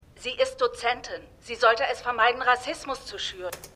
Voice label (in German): Kommentatorin; The Good Fight